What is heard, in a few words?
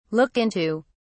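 "look into", British English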